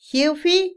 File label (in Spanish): abrazar, Otomí, mujer; Emociones; INALI